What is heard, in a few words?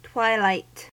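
"twilight", American English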